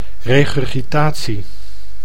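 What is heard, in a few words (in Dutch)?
"regurgitatie", Dutch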